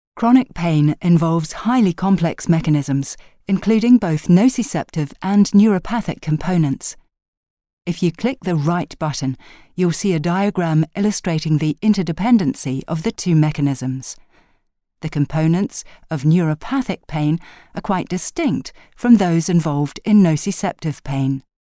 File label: elearning fachjargon